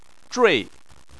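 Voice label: "zhui" Chinese, fourth tone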